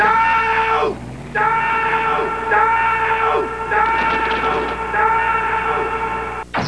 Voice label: Doh echo